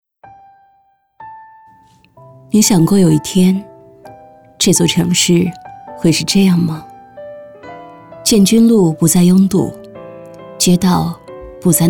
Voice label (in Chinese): 女, 独白; 只剩下孤独的长灯